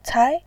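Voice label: "cai", Mandarin Chinese, tone 2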